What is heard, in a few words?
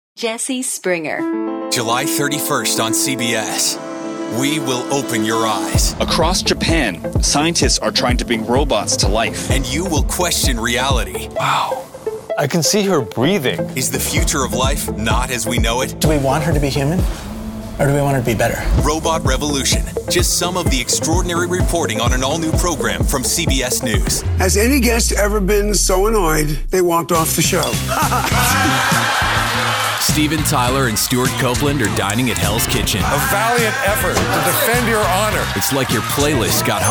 Promo